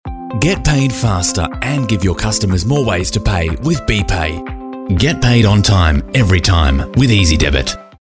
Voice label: voiceover; Brisbane Voiceover Recording